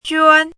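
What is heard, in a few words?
"juān", Mandarin Chinese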